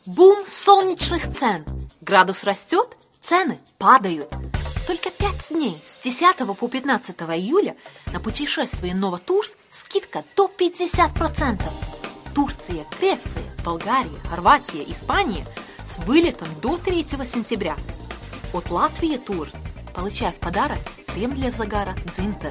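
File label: Russian; Latvian; Eastern European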